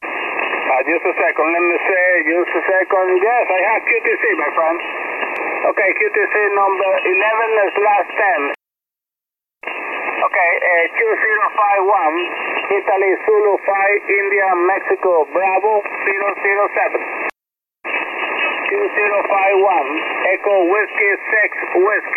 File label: ssb, beginner; Training QTCs; QTC list